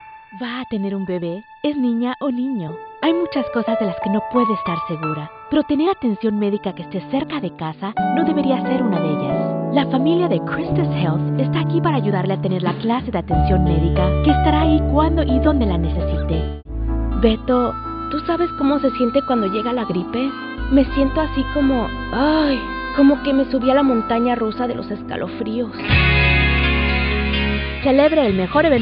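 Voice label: Spanish commercial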